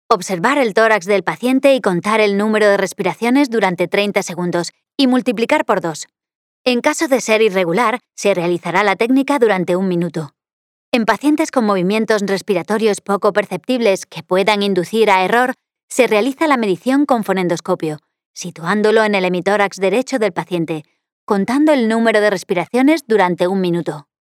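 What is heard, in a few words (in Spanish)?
e-learning, Spain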